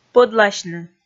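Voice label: "пӧдласьны", Komi, female; kpv audio dict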